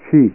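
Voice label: "shi", Japanese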